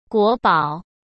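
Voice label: "国宝" Mandarin Chinese; hsk; chinese-flashcards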